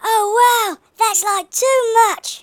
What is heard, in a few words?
perfect, speech, Hippy